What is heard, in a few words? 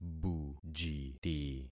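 "bujiti", concatenated syllables; Affixing experiment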